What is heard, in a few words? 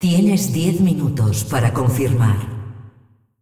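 announcer female tournaments lockin 10min, hu_hu; es es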